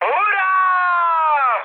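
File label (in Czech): Czech player killed enemy, mood high; speech; War Thunder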